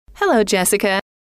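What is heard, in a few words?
hello, female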